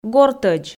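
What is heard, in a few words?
"гортӧдз", Komi, female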